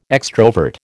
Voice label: "extrovert", American